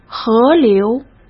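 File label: "河流", Mandarin Chinese; hsk; chinese-flashcards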